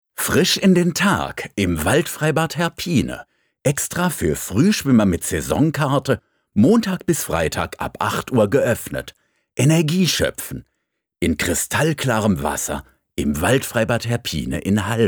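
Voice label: German, male, radio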